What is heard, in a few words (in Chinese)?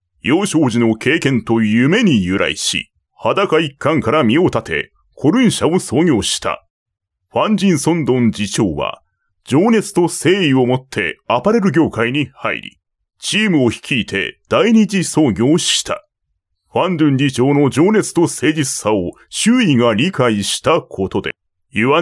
日语男声